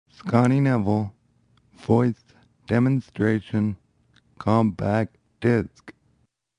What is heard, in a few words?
android voice introduction